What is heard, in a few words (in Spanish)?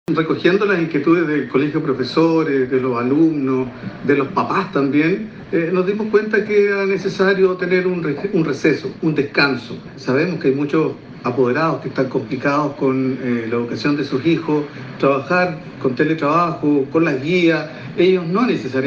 cuña; RECESO; osorno; pausa pedagogica y vacaciones de fiestas patrias